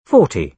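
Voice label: "forty", British English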